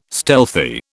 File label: "stealthy", British